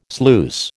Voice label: "sluice", American